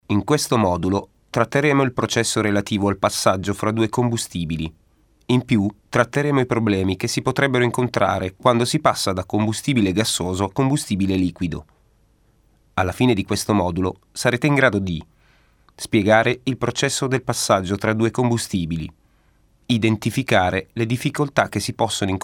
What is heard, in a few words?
italian